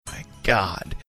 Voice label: quietly saying my god